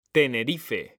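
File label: "Tenerife", Spanish, male; mekanta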